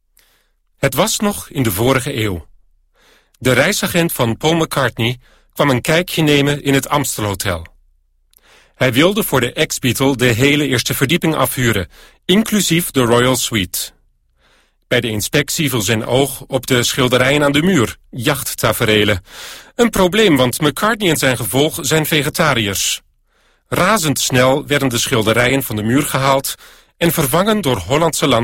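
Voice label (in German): Niederlande, männlich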